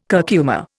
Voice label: "curcuma", Indian